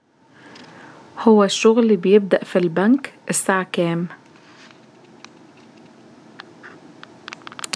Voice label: Egyptian